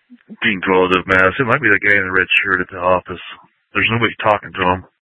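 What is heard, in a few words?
Mesa Police Department Superstition Dispatch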